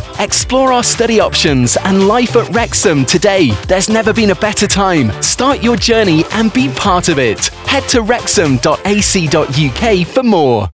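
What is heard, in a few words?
university commercial, Male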